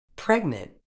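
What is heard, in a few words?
"pregnant", medical English, British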